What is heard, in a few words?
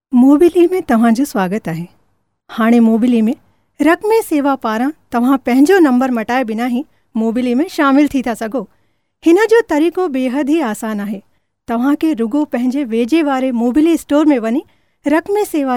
Sindhi Female